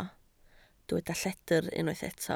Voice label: "lledr", Welsh